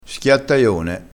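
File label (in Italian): "schiattaione", dialettale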